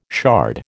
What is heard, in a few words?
"shard", American